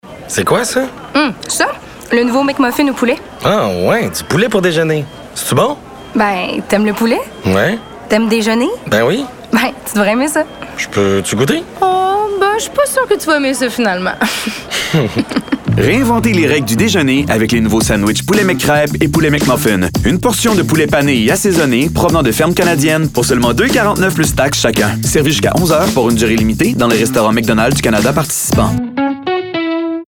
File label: radio; McCafe; Chicken at Breakfast